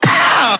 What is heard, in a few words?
"uh" STAB; ooh ahh